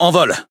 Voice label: Speech Pilots Bomber Airborne, FR; World Of Warships